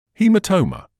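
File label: "haematoma", British English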